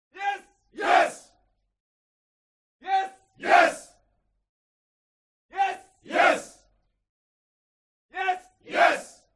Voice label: CROWD Military Shout English "Yes"; HUMAN; smartsound

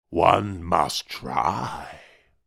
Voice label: human wizard says one must try

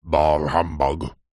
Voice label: human male old grumpy says bah humbug

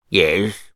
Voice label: human old man says yes

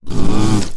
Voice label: Alien Scientist; little robot sound factory

